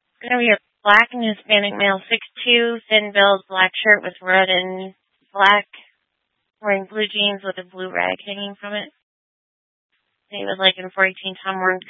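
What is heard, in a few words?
Tempe police department, scanner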